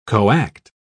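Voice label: "coact", English, British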